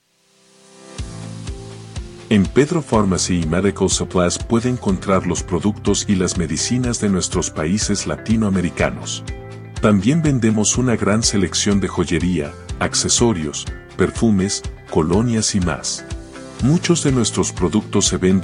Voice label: Spanish Male